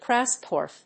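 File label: "crashproof", English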